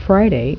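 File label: "Friday", spoken; Invisible Clock 1.0.1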